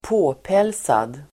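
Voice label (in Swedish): "påpälsad", Swedish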